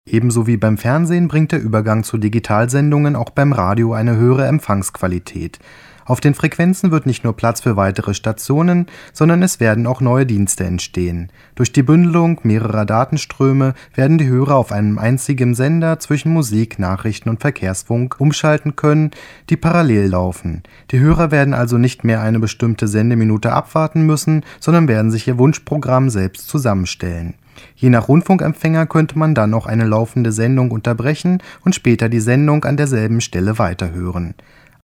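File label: male, German, documentary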